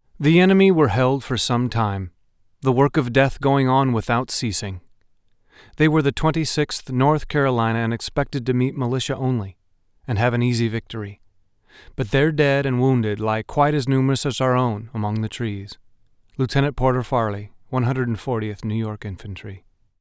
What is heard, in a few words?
voiceovers; Diary Quote